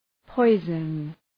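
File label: "poison", English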